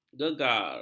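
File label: "gegar", Malay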